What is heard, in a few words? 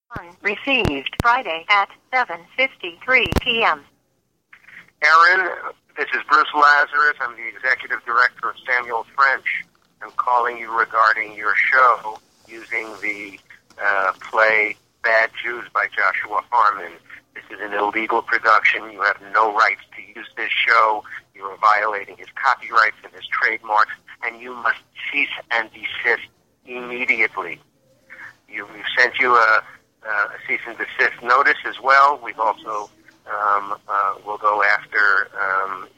voicemail; Legal